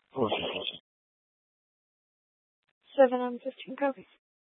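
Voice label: police dispatch, scanner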